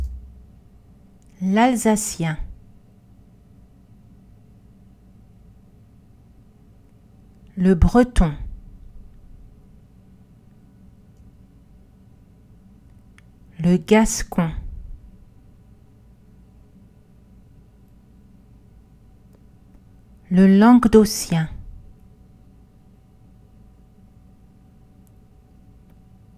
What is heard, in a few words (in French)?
dialectes; les identites linguistiques; l intonation